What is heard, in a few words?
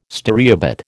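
"stereobate", American English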